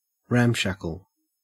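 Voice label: "ramshackle", Australian English; pronunciations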